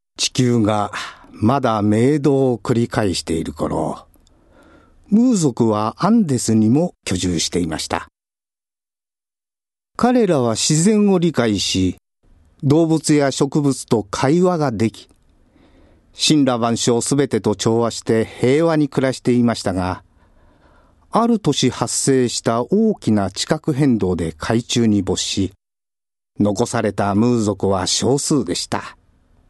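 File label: male voice, narration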